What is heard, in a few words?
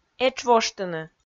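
"этш воштыны", Komi, female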